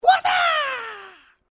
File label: "Wataaa" voice karate figth manga; free videogame sound; Sound Graph